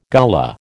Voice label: "Gullah", American